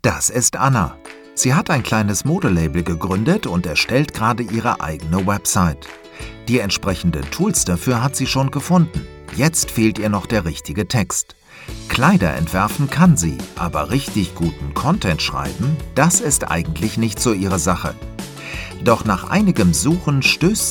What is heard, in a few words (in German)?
lauter werbung